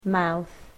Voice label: "mouth", English

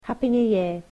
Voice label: "Happy new year", English

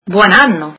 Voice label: Happy new year, italian

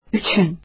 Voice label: for, azeri

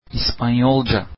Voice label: "Spanish", Turkish

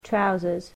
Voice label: "trousers", English

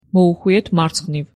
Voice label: Turn left, georgian

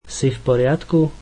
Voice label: Are you okay, slovak

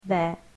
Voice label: "their", English

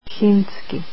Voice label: "Hindi", croatian

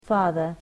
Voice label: "father", english

